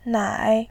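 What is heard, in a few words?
"nai", Mandarin, tone 3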